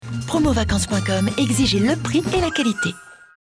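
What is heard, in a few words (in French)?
signature claire, comédienne voix off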